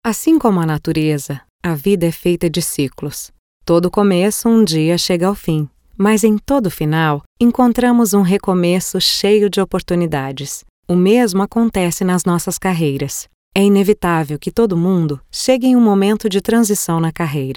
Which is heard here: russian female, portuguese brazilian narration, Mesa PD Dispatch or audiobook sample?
portuguese brazilian narration